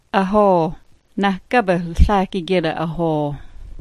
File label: "ahoo", smalgyax